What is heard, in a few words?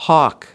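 "hawk", American English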